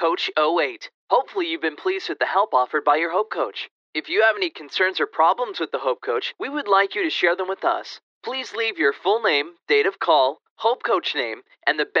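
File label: ON HOLD, voiceover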